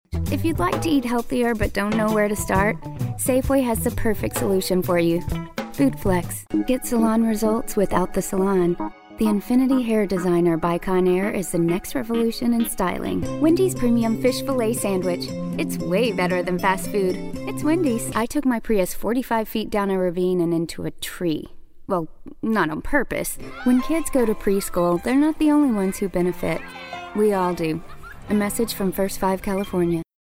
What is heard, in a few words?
Warm Nurturing